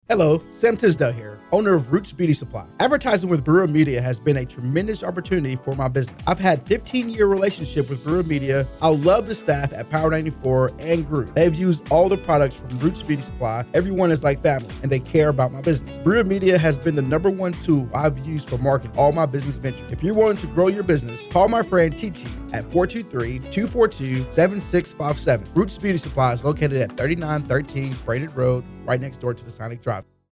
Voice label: sales testimonial